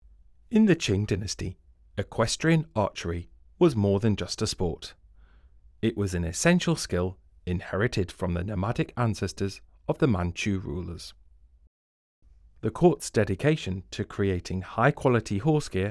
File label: English, audio guide